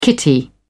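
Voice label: "kitty", British English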